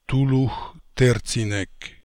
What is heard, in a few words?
"Toolookhterzinek", pronounciations; Fourth Way; Beelzebub